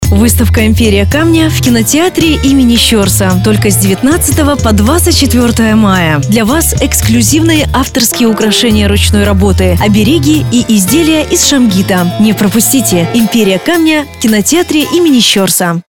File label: rus; female